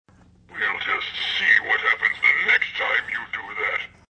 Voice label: Evil Toy Voice, An evil message from a Halloween toy.; Children Sound Effects; Human